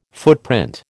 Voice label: "footprint", American